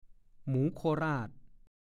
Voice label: "หมูโคราช", ภาษาโคราช; คนโคราช (เป็นคำพูดที่ดูแคลน)

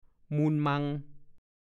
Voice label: "มูลมัง", ภาษาโคราช; มรดก ทรัพย์สมบัติ มูลมังสังขยา ก็พูด

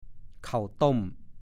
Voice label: "เข่าต้ม", ภาษาโคราช

